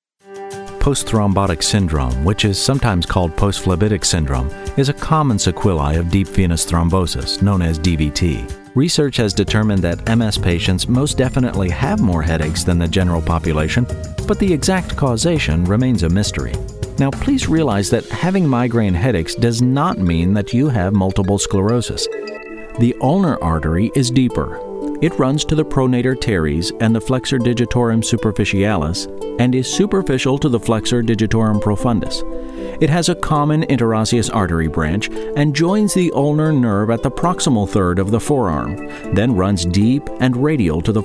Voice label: MEDICAL Voiceover; Medical Narration